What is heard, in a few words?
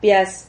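"pias", Spanish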